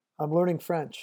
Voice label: "I'm learning French", French